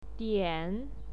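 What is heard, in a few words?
"dian", Mandarin Chinese, third tone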